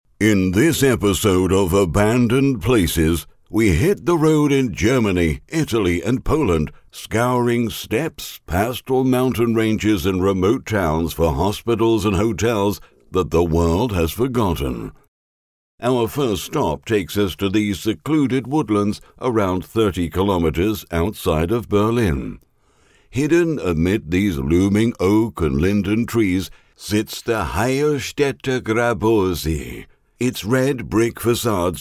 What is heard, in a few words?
uk